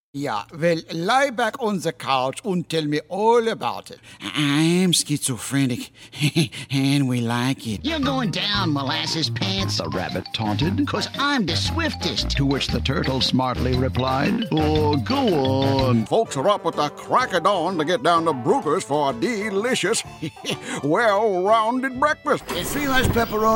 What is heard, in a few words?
Character, animation